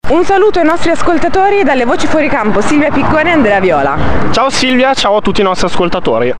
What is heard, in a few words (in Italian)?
voci fuoricampo